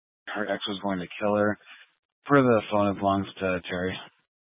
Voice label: Police Department Dispatch, scanner